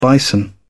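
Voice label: "bison", British English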